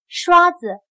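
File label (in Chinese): "刷子" Mandarin Chinese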